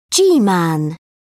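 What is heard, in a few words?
"g-man", British English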